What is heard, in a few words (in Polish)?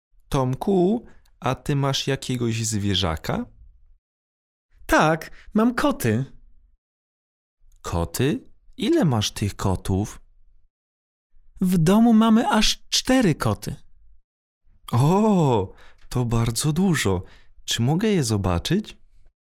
dialog